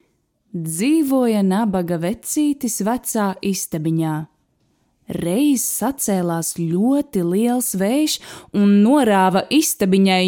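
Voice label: Latvian, Story telling; voice demos; GoLocalise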